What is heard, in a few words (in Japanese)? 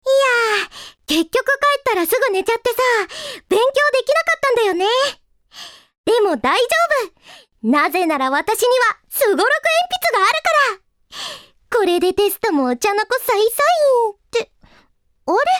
アニメ